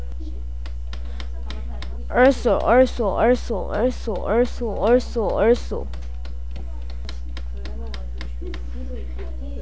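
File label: "also", spoken word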